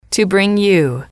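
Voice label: to bring you, English, female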